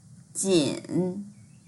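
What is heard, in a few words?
"jin3" Mandarin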